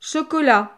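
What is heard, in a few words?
"chocolat", French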